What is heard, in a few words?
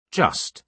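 "just", British English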